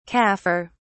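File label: "kafir", British English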